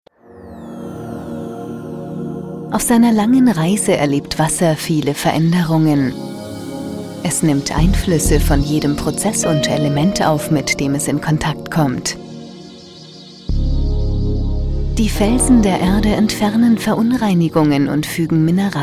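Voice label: german female commercial; voice demos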